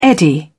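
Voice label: "eddy", British English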